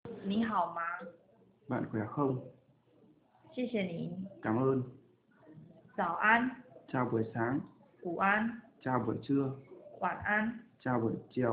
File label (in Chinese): 越南語日常問候用語